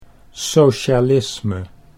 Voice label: "socialisme", Dutch

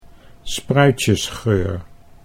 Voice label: "spruitjesgeur", Dutch

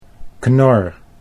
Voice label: "knor", Dutch

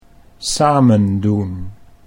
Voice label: "samen doen" Dutch

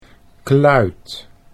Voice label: "kluit", Dutch

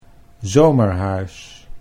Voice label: "zomerhuis", Dutch

